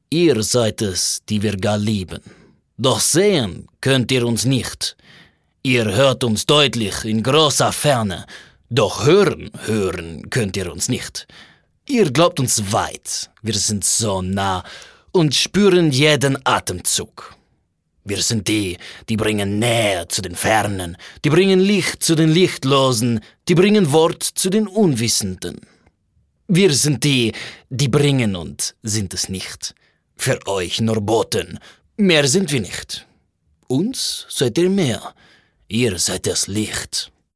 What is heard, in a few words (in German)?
Akzent russisch, maenner